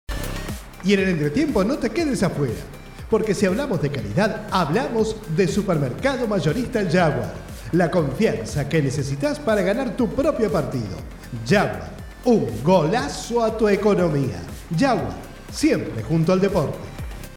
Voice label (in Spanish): SPOT RADIO FUTBOL